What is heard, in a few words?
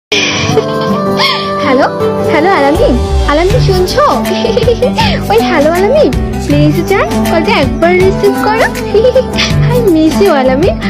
name ringtone cute girl voice